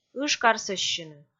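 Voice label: "ыжкарсӧссьыны", Komi, female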